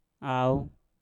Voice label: "au", Mpur; kapur

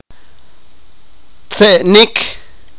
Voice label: sipakapense; laugh

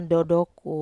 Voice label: "dodoku", Wamesa

